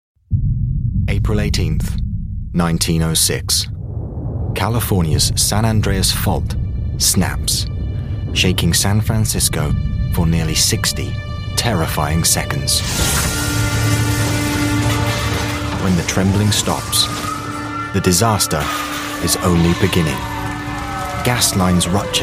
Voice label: documentary